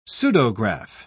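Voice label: "pseudograph", British English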